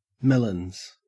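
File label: "melons", Australian English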